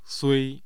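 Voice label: "sui1", Mandarin Chinese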